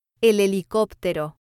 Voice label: "el helicoptero", Spanish